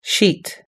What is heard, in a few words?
"sheet", us-english